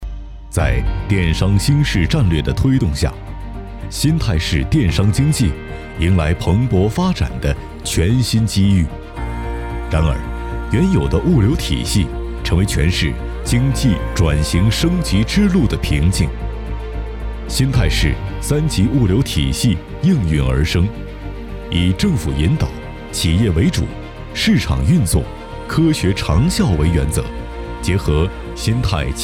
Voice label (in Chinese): 专题片深沉品质企业宣传有讲述感